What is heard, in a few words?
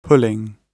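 "pulling", British English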